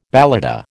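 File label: "balata", American English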